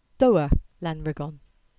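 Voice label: "Lariggan River", Cornish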